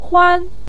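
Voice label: "huan" neutral tone, Mandarin Chinese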